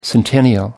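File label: "centennial", British English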